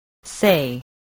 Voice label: "say", english spelling